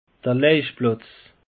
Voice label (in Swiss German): "leichplatz", alsace; peche